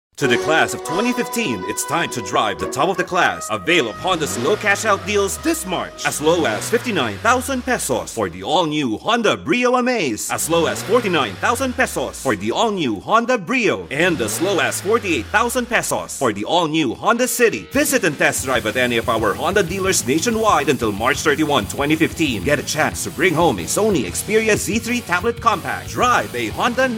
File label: Radio Ad; Honda